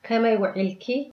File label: good afternoon, tigrinya